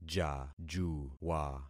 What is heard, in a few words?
"jajuwa", concat syllables; Affixing experiment